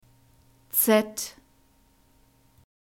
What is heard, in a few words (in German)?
"z", German alphabet